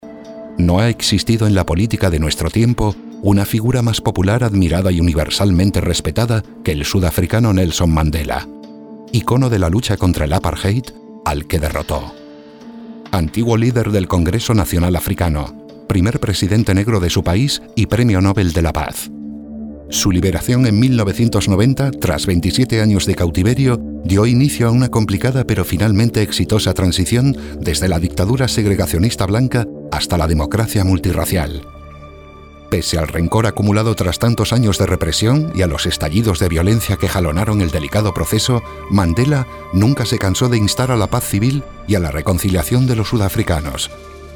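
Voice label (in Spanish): e-learning, Spain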